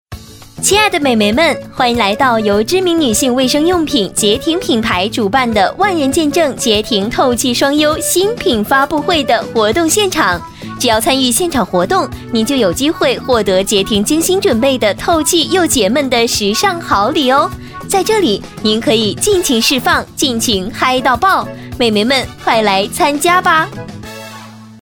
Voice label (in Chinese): 女 广告 活泼欢乐; 洁婷